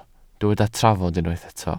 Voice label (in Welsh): "trafod" Welsh